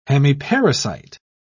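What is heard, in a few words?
"hemiparasite", British English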